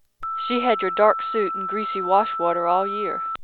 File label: female; ORIGINAL SOUND; IIR and FIR Filters Laboratory